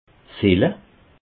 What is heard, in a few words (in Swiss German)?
"fille", alsace